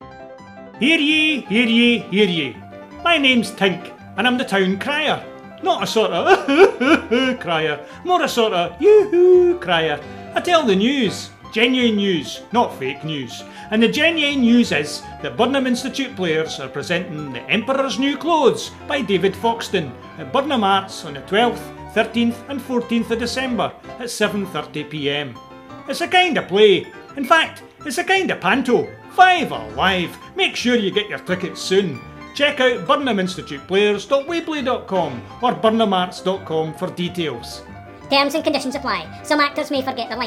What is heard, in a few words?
radio ad; bips new clothes